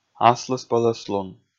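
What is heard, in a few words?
"аслыспӧлӧслун", Komi, male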